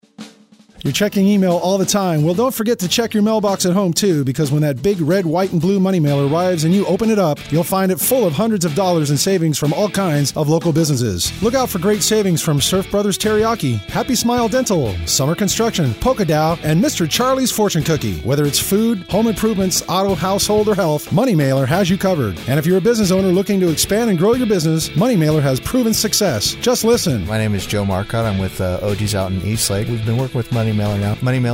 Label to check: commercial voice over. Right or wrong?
Right